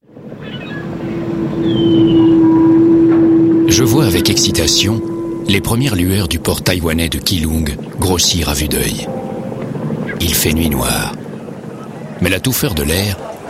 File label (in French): radio reveuse posee; decitre